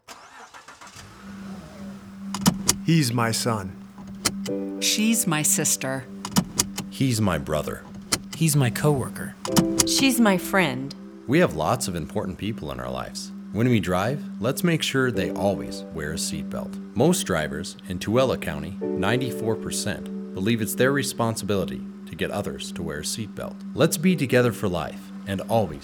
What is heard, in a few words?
Adult Radio; Tooele